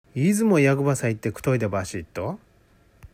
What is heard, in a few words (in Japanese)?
「くとぐ」, 旭弁 銚子弁; ※文句や不平不満を言う、ディスること; 「いづもやぐばさ行ってくといでばーしいっと」; （訳）いつも役場に行って文句ばかり言っているよ